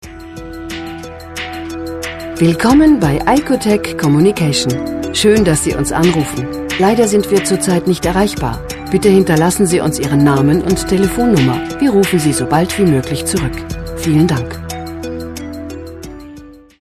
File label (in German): anrufbeantworter; icotec